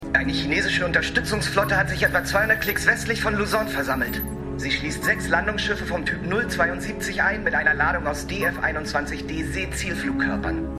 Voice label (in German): Admiral, Stimmproben; Admiral Tom Fanning; Madam Secretary